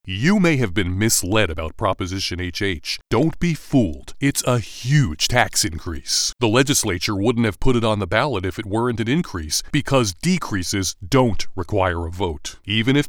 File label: Radio Ad; Proposition HH